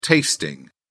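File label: "tasting", British English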